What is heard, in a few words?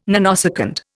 "nanosecond", Indian